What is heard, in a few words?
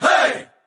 "hey" group of men; ooh ahh